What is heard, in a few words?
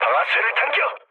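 Korean attack position mood low; War Thunder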